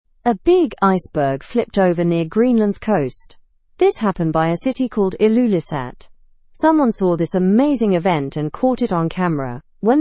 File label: learn english through news lower intermediate; huge iceberg flips near greenland coast